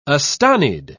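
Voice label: "astonied", British English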